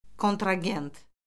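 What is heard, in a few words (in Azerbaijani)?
"kontragent", Azerbaijani; Orfoqrafiya Lüğəti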